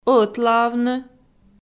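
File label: "ӧтлаавны" Komi, female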